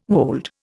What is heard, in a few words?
"wold", Indian